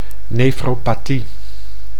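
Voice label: "nefropathie", Dutch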